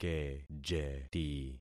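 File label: "kejeti", concatenated syllables; Affixing experiment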